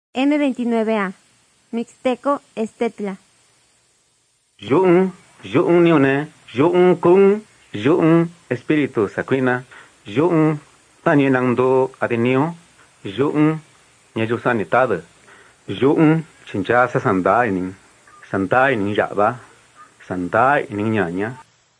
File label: mixtec diagnostic spanish mexico